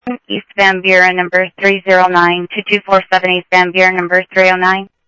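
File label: Sheriff West County, scanner audio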